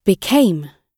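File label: "became", British English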